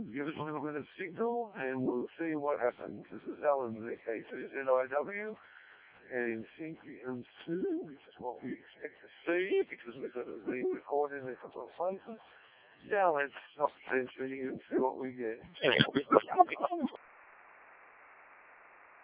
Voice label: decoded speech, parrot